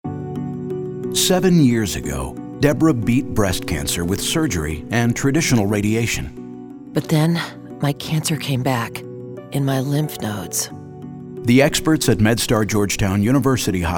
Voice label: Radio; MedStar Proton